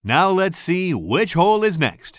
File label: commentator